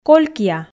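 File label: "колькъя", Komi, female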